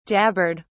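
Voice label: "jabbered", British English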